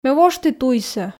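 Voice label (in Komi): ме вошті туйсӧ, Komi, female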